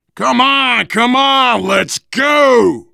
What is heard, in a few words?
move on, voice, biker; Left 4 Dead 2; left4dead2 dlc3; survivor